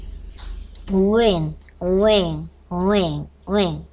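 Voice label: "ring", spoken word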